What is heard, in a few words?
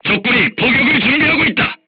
voice message art shooting warning, korean, crew tanks